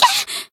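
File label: Voice damaged Japanese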